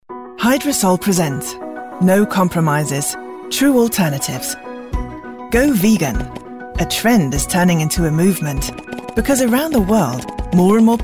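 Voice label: UK British OFF; Hydrosol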